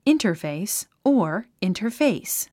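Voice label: "interface", English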